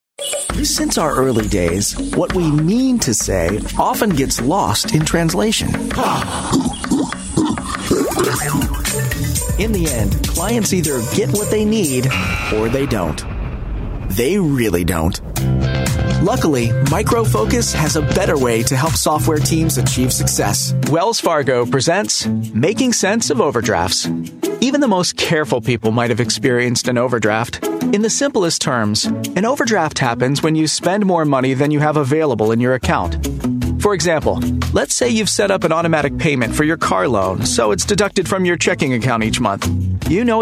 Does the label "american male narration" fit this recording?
Yes